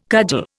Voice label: "cudgel", Indian